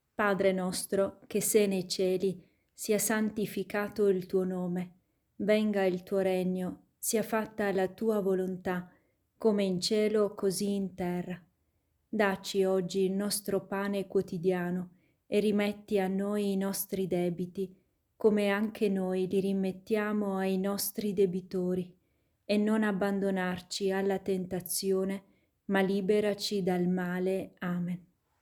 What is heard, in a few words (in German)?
Vater unser italienisch